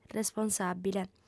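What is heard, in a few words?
"responsàbile", female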